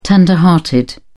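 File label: "tender hearted", British English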